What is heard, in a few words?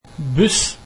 bus, norwegian